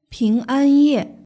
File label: "pinganye", Chinese